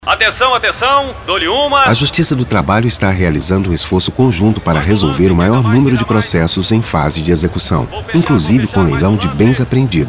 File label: spot